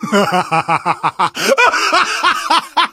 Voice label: taunt, survivor voice; source engine; left 4 dead 2; manager